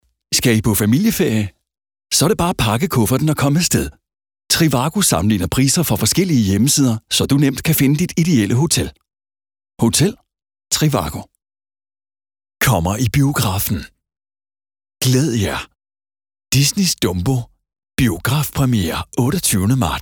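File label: Danish commercial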